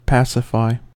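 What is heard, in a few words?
"pacify", American English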